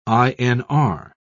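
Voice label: "inr", British English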